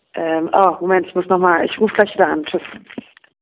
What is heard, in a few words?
Voicemail